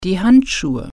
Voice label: gloves, german

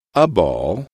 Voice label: "a ball", english

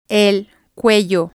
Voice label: neck, spanish

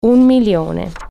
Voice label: one million, italian

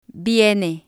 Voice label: "viene", Spanish

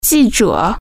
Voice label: "reporter", Chinese

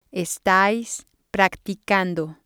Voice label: "estáis practicando", Spanish

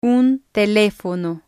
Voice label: "un telefono", Spanish

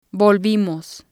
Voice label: "volvimos", Spanish

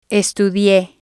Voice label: "estudie", spanish

